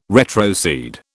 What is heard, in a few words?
"retrocede", British